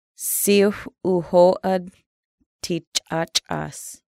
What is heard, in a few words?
youth services, Lushootseed